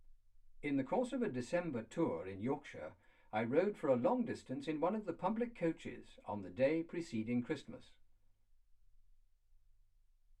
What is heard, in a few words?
male speech english